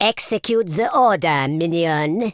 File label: "come on then", Super Villain; speech